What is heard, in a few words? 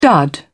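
"dud", British English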